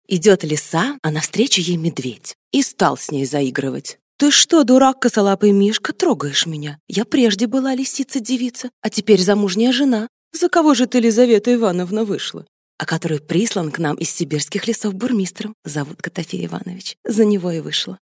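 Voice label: Russian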